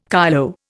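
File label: "kyloe", Indian English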